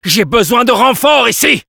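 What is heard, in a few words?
Spy help me defend, French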